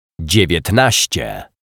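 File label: "dziwietnascie", Polish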